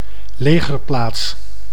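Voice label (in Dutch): "legerplaats", Dutch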